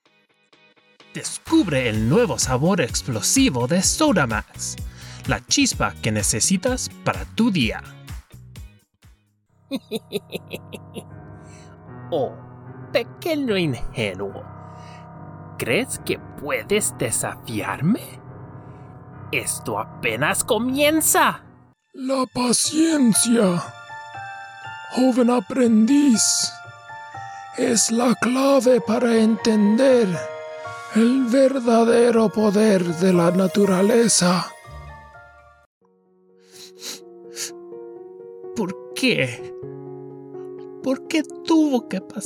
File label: Spanish